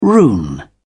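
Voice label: "rune", British English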